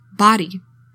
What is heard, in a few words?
"body", english; 3000 words